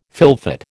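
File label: "fylfot", American English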